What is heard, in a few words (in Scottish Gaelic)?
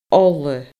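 "ola", Scottish Gaelic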